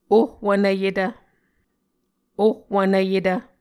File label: "ohwvnvyetv", Muscogee